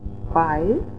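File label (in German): "bei", German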